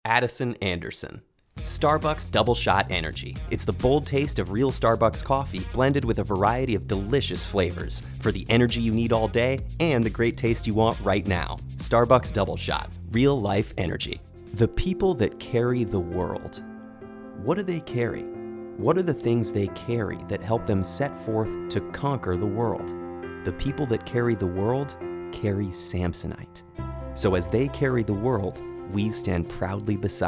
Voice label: Commercial VO